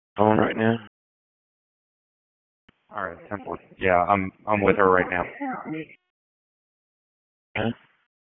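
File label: Police District Dispatch; Raleigh Police Northeast District Disp; wake p25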